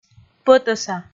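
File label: "пӧтӧса", Komi, female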